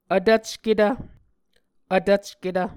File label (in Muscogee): "vtvcketv", Muscogee